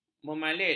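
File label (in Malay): "memalit", Malay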